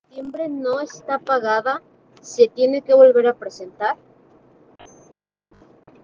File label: whatsapp ptt